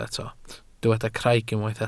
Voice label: "craig", Welsh